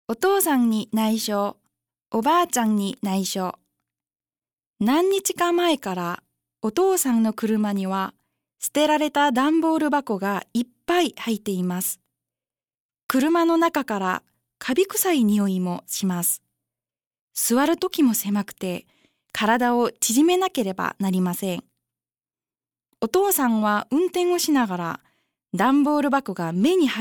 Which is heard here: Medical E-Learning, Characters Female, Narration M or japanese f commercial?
japanese f commercial